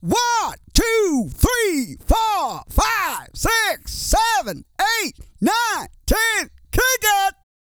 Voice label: COUNT, Vocal Planet, R&B & Dance, COUNTING; ILIO